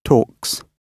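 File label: "talks", British English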